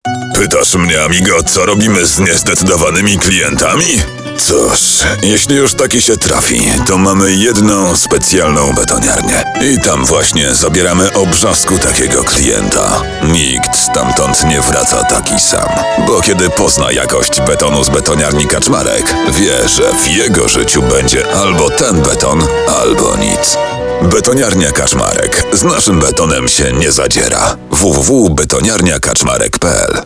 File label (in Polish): reklama radio